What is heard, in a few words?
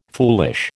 "foolish", American